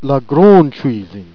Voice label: "grande tchuisinne", Jèrriais